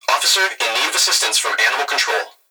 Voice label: Emergency Human Young Male Voice Police Radio Officer In Need Of Assistance From Animal; Animal Control